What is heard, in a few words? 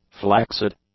"flaccid", english; adjectives